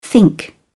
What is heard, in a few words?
"think", uk